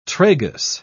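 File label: "tragus", British English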